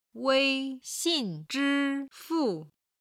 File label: "wei1xin4zhi1fu4", chinese